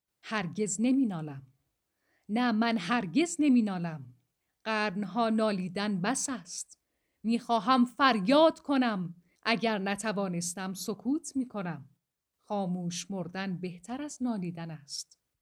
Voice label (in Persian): اعتراضی, لحن اعتراضی; نه ، من هرگز نمی نالم . / قرن ها نالیدن بس است . / می خواهم فریاد کنم . / اگر نتوانستم ، سکوت می کنم . / خاموش مردن بهتر از نالیدن است